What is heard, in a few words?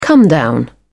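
"comedown", British English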